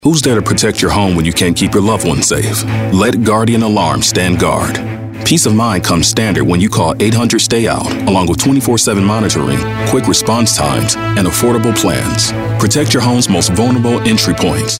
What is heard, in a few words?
authoritative